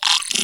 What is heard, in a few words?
Zombie